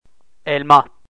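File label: "elma", Turkish, male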